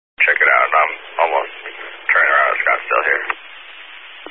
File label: Highway Patrol, scanner